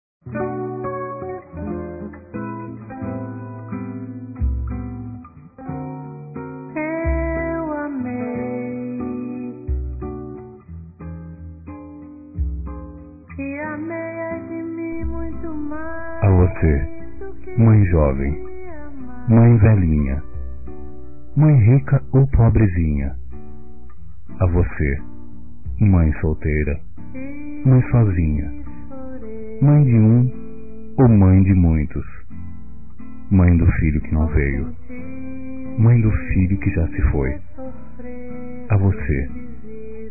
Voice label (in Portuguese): Dia das Mães Homenagem Masculino, Telemensagens; Telemensagens 2017